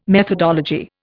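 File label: "methodology", English, Indian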